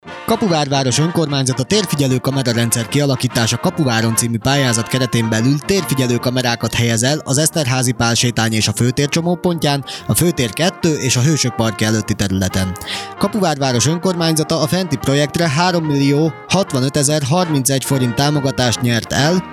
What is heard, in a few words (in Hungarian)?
radio spot; kamera